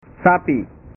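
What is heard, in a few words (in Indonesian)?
"sapi", Indonesian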